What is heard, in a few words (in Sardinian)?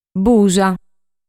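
"Busa", Sardinian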